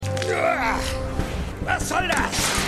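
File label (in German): Wütender Insasse; Stimmproben; Arrow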